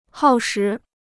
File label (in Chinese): "耗时", Chinese